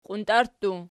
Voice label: "qiuntarttu", Archi